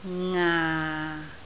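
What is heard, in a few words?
"ngaa6", Cantonese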